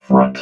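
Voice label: "front", robot voice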